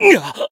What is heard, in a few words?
Voice damaged, jp